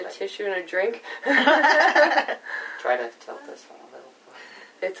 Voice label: tissue and a drink girls voice; howell residential